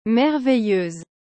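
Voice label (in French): "merveilleuse", French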